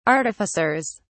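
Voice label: "artificers", English, British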